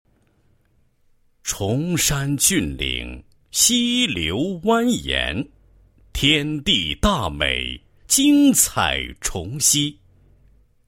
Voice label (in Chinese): 男 项目介绍 省级土地整治项目介绍